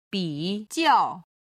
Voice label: "bi3jiao4", Chinese